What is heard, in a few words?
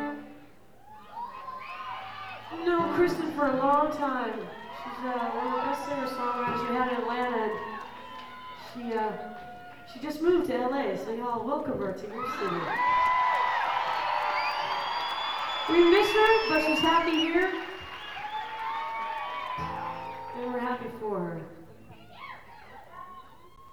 talking with crowd; universal amphitheatre; los angeles, california